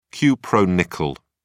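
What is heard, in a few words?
"cupronickel", British English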